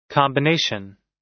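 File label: "combination", American English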